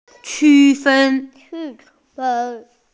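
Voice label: "qu1fen1", female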